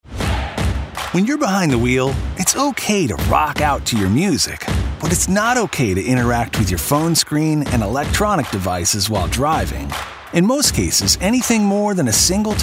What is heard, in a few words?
Phones Down Radio; ODOT